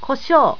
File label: "kosho", japanese